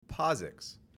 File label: "POSIX" pronounced